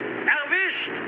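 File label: German player killed enemy mood low, speech; War Thunder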